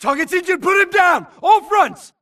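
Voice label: grunt detect Player Low Health; imc; Titanfall 2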